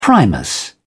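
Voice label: "primus stove", British English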